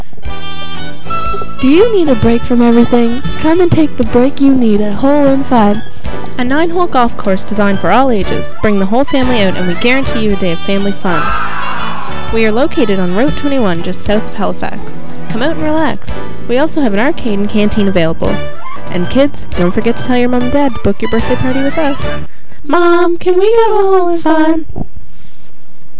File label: radiospot